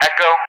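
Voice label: "Echo", English; Alphabet; DCS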